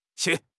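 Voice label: "屑", Mandarin Chinese; HSK6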